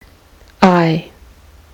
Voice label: vowel in price, kiwi english